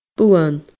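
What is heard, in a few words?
"buan", Scottish Gaelic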